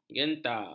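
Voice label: "genta", Malay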